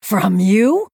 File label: Female Night Elf; Maiev Shadowsong